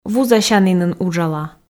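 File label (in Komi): вузасянінын уджала, Komi, female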